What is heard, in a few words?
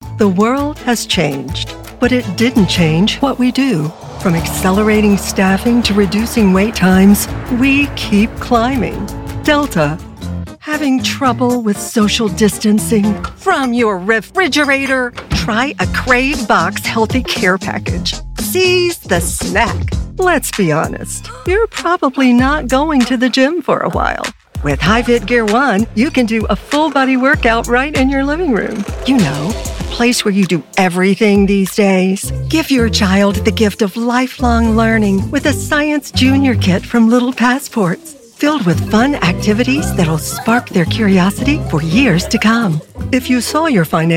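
English US, commercial; GoLocalise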